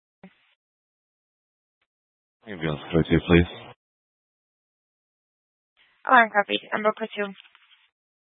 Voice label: Fire Departments Mesa Simulcast; TOPAZ

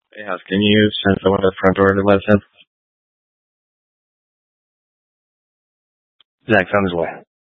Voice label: Fire Departments, scanner

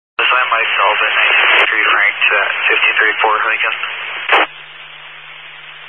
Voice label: Highway Patrol, Phoenix Metro West Area, scanner

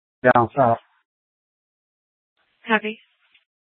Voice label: Dispatch, Mesa PD

